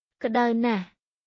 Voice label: Khmer, basic khmer cambodian everyday